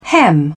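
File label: "hem", British English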